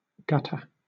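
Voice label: "gutter" British English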